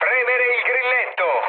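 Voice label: Italian attack position mood low, speech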